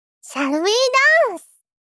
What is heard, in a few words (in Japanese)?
しゃるうぃ～だんす！（shall we dance!) 少女 中音域